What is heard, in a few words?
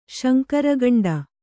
"ಶಂಕರಗಂಡ", Kannada